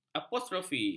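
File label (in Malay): "apostrofe", Malay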